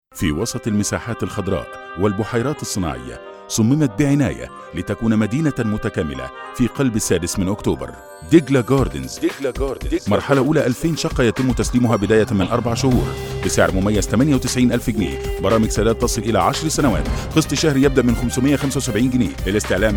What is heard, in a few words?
male arabic, ivr voice over; ivr voice over supplying